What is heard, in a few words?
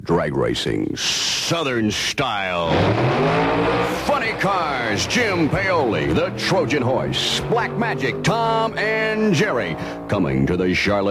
Radio Ad; 1974; IHRA; SouthNats